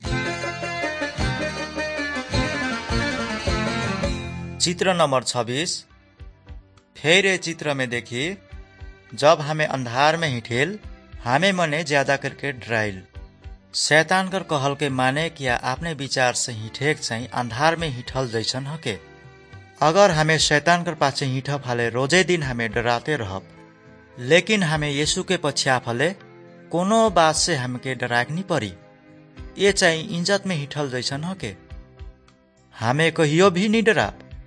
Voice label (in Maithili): good news maithili, nt drama